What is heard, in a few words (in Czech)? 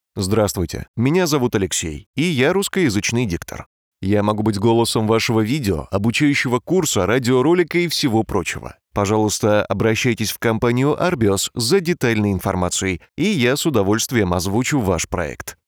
Ruský dabing muž